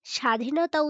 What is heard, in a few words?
Female